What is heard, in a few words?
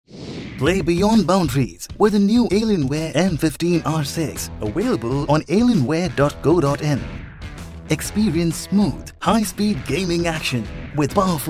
Short Radio Ads